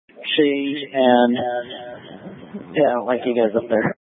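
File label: Incident Tac 2, Scanner audio recording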